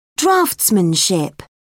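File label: "draftsmanship", British English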